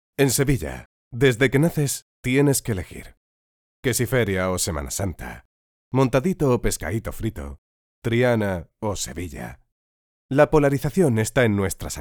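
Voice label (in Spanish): corporativo España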